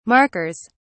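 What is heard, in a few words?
"markers", English, British English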